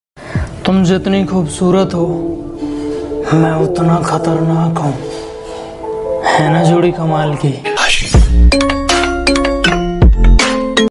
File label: attitude shayari